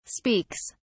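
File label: "speaks", British English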